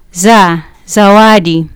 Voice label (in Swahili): "zawadi", Swahili